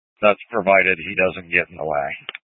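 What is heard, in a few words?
Incident Tac 2, Scanner audio recording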